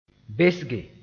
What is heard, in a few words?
"besge", moore